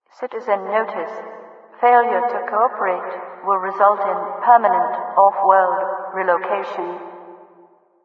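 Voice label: train station offworld relocation speaker, overwatch city voice; Garry's Mod; hl2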